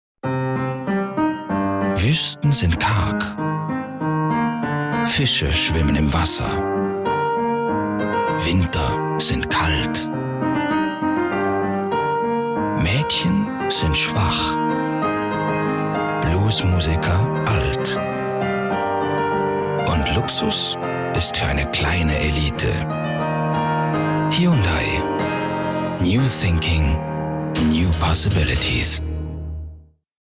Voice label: german automotive; Deutsch Deutsch (Schweiz)